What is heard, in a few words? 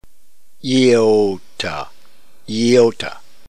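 "iota", New Testament Greek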